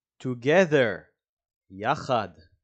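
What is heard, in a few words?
"together" English, "יחד" Hebrew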